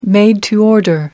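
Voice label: "made to order", British English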